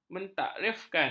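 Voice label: "mentasrifkan", Malay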